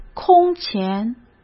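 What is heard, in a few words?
"空前", Mandarin Chinese; chinese-flashcards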